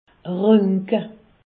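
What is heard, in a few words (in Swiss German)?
"ronche", Alsatian; medecine; radiographier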